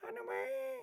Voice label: Pig Chef, Voices